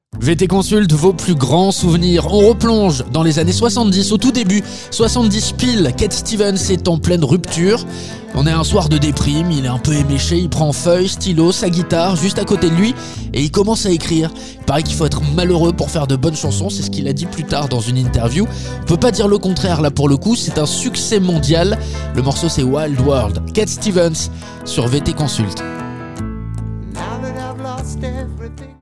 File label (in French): version adulte; VT CONSULT